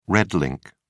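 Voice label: "red ink", English, British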